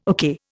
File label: "okay", Indian